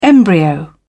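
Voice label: "embryo", British English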